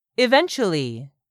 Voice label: "eventually", English; 3000 words

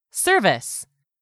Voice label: "SERVICE", english; 3000 words

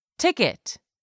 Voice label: "ticket", English; 3000 words

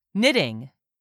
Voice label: "KNITTING", english; 3000 words

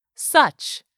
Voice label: "such", english; 3000 words

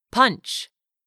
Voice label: "PUNCH", English; 3000 words

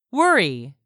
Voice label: "worry", English; 3000 words

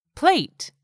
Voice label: "plate", English; 3000 words

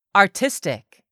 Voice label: "ARTISTIC", english; 3000 words

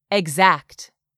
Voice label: "exact", English; 3000 words

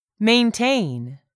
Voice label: "maintain", English; 3000 words

